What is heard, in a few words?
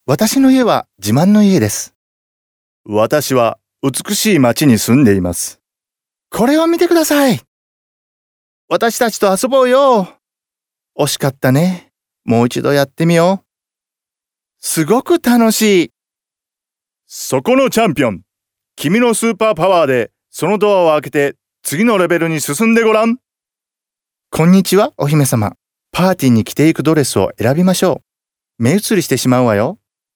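Japanese male children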